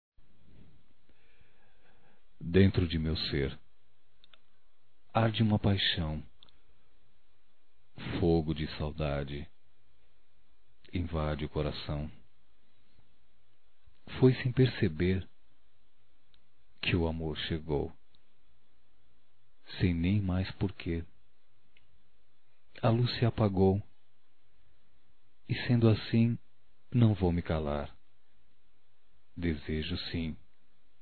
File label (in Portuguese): Reconciliação Poesia Masculino